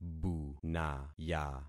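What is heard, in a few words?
"bunaya", concatenated syllables; Affixing experiment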